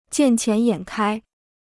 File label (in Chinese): "见钱眼开", Chinese